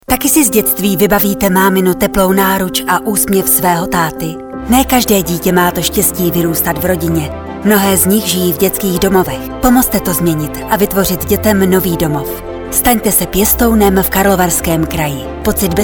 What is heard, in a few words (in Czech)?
radiospot